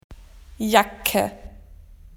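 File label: "jacket", Australian English; PictureDictionary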